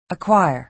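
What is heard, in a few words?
"acquire", American English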